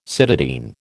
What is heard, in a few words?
"cytidine", American